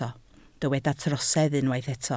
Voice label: "trosedd", Welsh

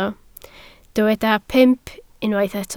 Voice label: "pump", Welsh

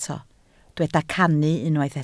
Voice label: "cannu", Welsh

